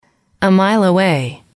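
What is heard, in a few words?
a Mile Away, english, female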